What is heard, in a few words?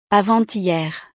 "avant-hier", francés